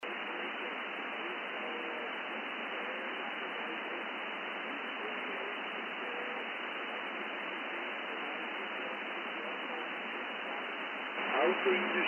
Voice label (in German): Kurzwelle 10m Amateurfunk USB; 28515khz